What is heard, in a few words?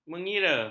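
"menyira", Malay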